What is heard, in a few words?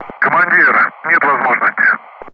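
Speech Sound Russian Common Ground Crew Messages; unable to comply; DCS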